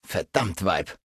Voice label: Male adult default hello